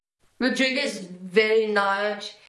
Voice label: Voice Acting, es